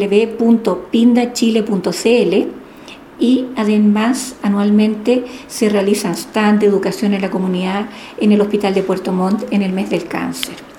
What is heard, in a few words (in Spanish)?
cuña cancer infantil